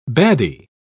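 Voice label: "baddie", British English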